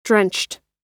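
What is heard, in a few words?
"drenched", American English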